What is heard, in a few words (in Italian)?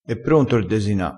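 "desina", dialettale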